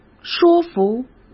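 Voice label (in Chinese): "说服", Mandarin Chinese; chinese flashcards; hsk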